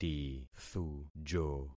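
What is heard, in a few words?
"tithujo", concatenated syllables; Affixing experiment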